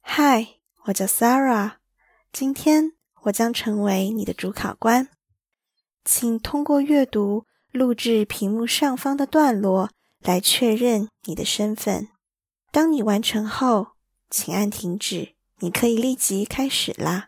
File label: certificate confirmation chinese